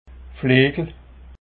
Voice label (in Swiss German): "flegel", alsace